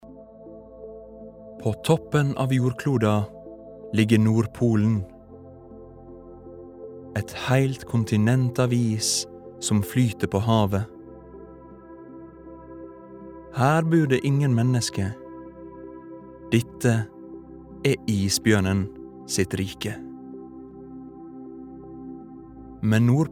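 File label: Norwegian documentary, norwegian male; dokumentar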